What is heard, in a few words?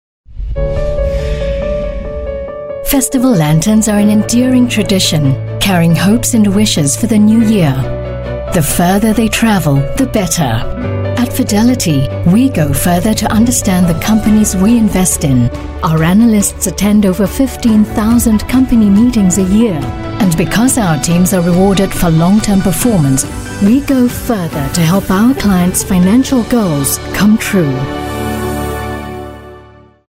Asian English Commercial; Fidelity Further